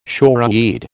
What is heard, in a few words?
"shoreweed", American